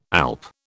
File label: "alp", British